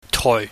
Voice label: "toi", pingyam